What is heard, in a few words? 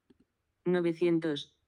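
"novecientos" Spanish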